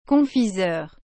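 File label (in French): "confiseur", French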